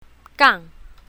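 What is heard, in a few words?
"gang4", Chinese pinyin, south, female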